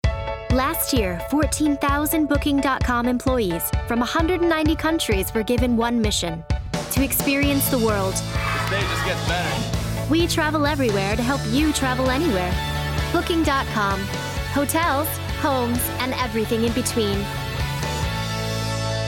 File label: natural conversational friendly